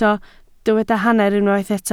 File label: "hanner", Welsh